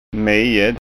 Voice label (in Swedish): "mied", skanska